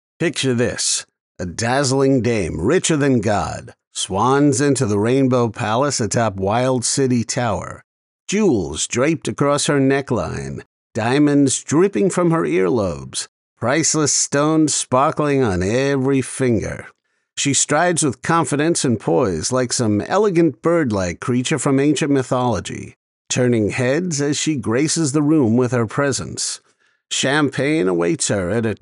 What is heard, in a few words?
Audiobook